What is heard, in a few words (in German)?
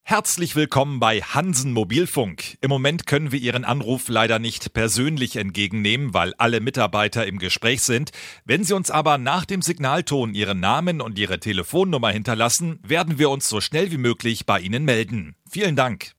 Telefonansage; Hansen Mobilfunk